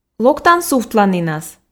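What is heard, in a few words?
"локтан сувтланінас", Komi, female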